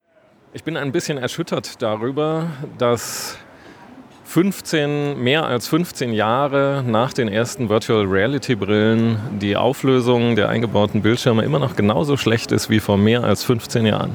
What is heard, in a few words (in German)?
stimme m